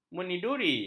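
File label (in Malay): "meniduri", Malay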